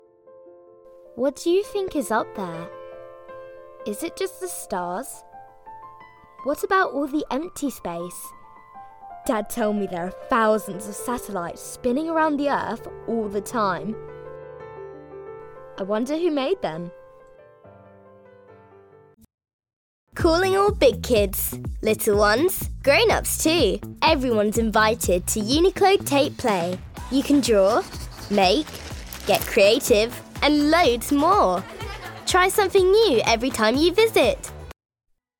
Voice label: Mix with music